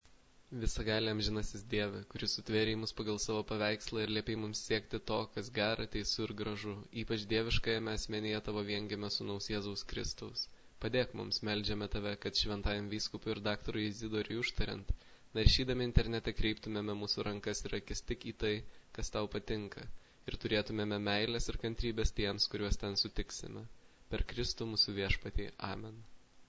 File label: internet prayer lithuanian